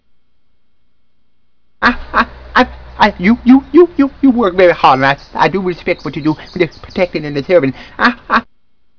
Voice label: excited southerner; I,I,I,I, you,you,you,you, you work very hard right, I do respect what you do, with the protecting and the serving